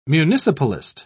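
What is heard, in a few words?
"municipalist", British English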